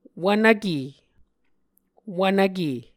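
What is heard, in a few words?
"wvnvke", Muscogee word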